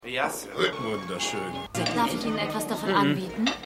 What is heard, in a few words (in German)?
Ja Sir Wunderschoen Kellnerin; AusgerechnetAlaska